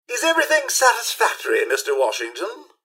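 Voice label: hello, robot; rivetcity; mrhandy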